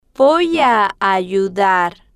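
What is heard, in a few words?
"voy a ayudar", Spanish, female, slow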